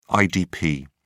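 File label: "idp", English, British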